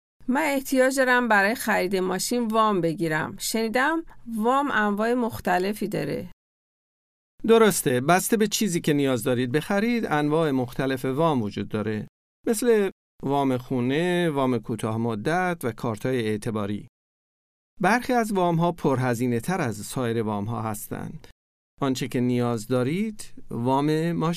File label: types of credit farsi